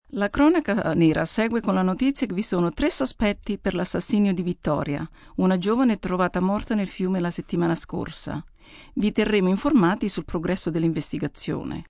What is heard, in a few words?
News Murder, female news item in Italian; Broadcast, news; on Victoria girl murder. (human voice speaking); VoiceBox